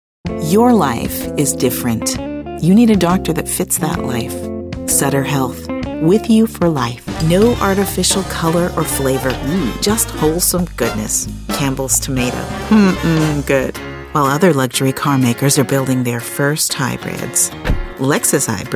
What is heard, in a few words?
COMMERCIAL